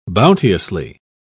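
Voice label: "bounteously", British English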